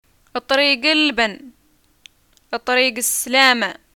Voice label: "greeting farewell", arabic